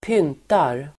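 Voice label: "pyntar", Swedish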